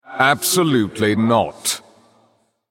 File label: male; eternalone error generic